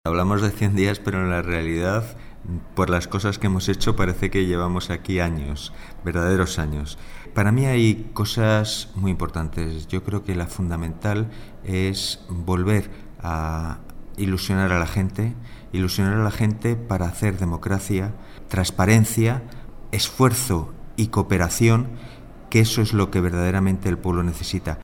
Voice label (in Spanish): noticias